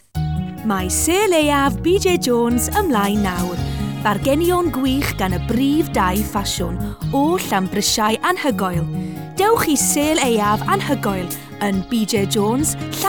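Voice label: Welsh, female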